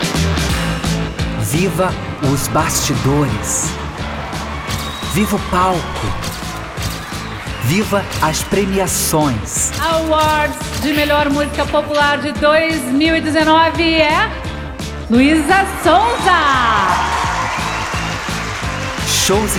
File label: spot; TNT